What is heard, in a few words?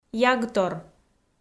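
"ягтор", Komi, female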